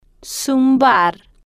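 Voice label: "zumbar", Spanish, female, slow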